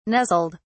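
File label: "nuzzled", English, British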